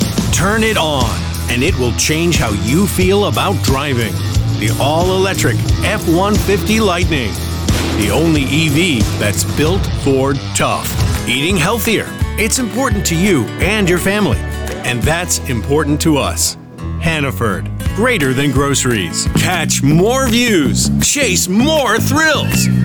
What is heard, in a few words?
Commercial Voiceover